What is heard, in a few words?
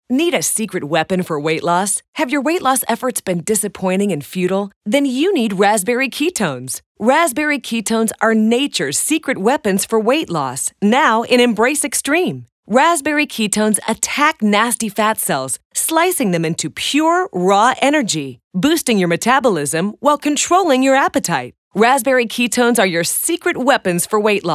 Keytones VO Dry; voice over demo